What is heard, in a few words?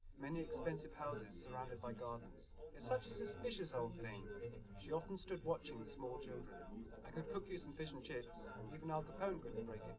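male3 live recording 130ms 1m, underdetermined; SiSEC11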